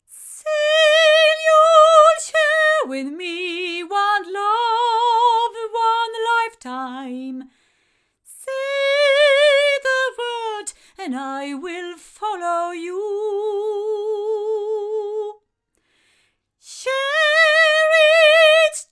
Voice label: female; sE Gemini; microphones; se electronics